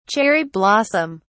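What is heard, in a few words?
"cherry blossom", British English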